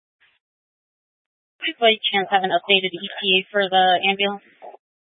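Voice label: Police Dispatch, Scanner audio recording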